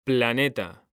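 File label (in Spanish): "PLANETA", Spanish; mekanta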